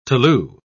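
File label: "tolu", British English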